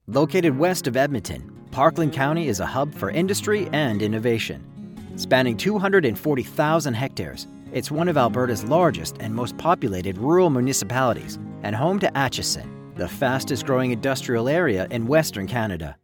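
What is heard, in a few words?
with music; Parkland County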